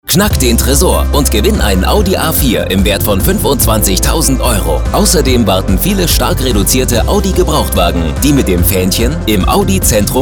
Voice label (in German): Radiospot; Audi Gebrauchtwagen